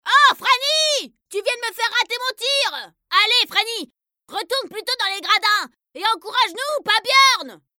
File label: female french kid comercial; ideasonora